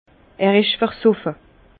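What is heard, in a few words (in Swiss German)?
"versoffe", Alsace Bas Rhin; vin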